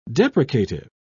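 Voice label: "deprecative", English, British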